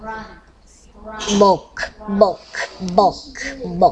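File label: "book", spoken word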